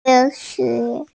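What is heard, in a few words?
"kē xué", Mandarin Chinese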